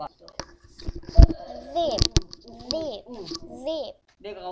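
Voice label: "zip", spoken word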